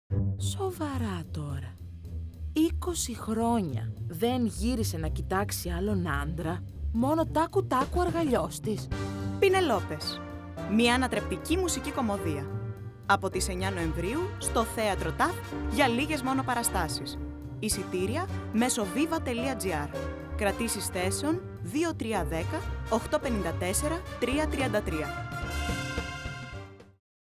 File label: radio spot; Πηνελόπες; Θεατρο